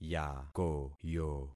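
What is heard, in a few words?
"yakoyo", concatenated syllables; Affixing experiment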